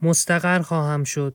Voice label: civ male garrison, persian

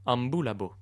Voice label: male walk, latin

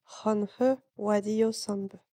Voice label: female heal, voice napatan civ

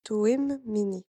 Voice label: female herd, voice napatan civ

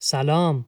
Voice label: civ male hello, persian

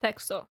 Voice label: female build, greek

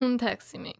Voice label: civ female go out against, hellenes

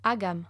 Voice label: female herd, latin

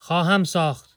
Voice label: male repair, persian

